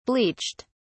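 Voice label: "bleached", British English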